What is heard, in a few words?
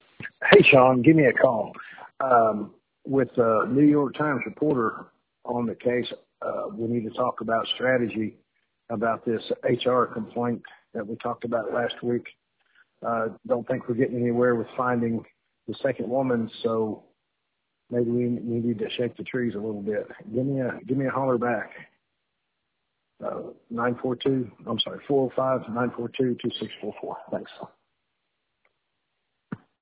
voicemail